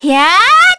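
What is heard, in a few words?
Vox, Korean; Cassandra; kingsraid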